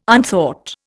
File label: "unthought", Indian